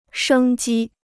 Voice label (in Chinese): "生机", Chinese